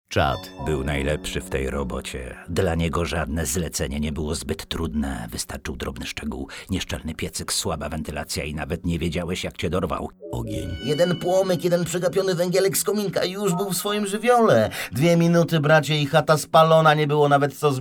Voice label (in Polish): spot radiowy; Czad i ogień